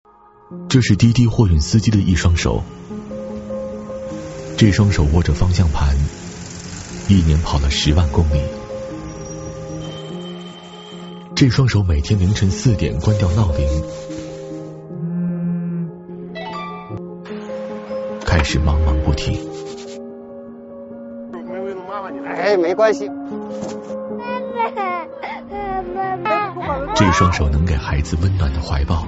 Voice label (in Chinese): 男 宣传片 企业 一双手; 滴滴货运2020